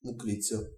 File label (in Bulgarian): Woodlouse desc, bg; insects; tuxpaint stamps; animals